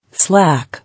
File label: "Slack", English